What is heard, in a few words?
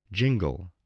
"jingle", voice